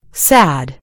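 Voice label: "sad", American English; gcompris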